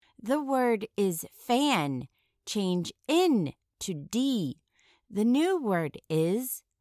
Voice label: fan manipulating ending sounds

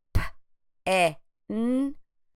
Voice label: p ĕ n, phonemic segmentation

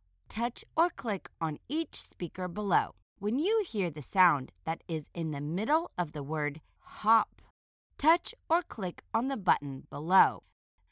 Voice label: hop medial phoneme isolation

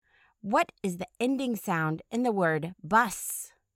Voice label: bus ending sound

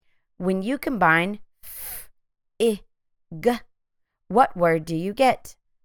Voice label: f ĭ g phonemic blending

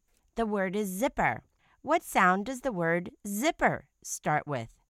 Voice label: zipper initial sound

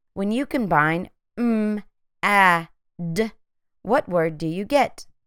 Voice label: m ă d phonemic blending

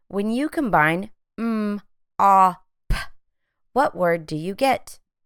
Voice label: m ŏ p phonemic blending

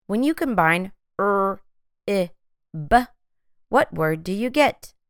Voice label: r ĭ b phonemic blending

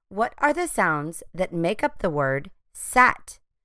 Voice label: sat phonemic segmentation

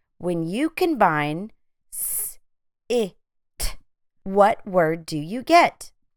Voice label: s i t phonemic blending

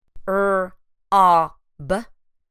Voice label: r ŏ b, phonemic segmentation

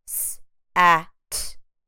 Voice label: s ă t, phonemic segmentation

